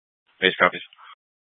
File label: Dispatch; Scottsdale